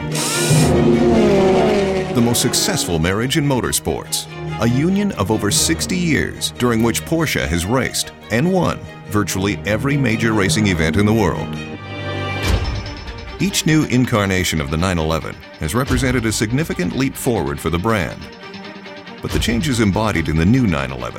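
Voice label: Narration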